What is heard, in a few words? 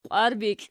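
"qwarbikli", Archi, nominative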